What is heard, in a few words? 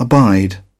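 "abide", British English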